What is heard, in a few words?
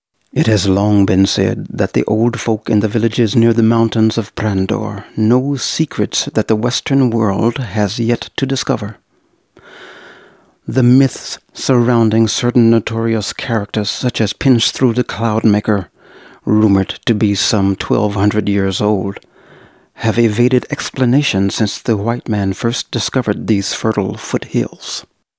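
male English documentary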